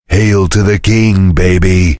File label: cheer; svencoop; voice commands; Duke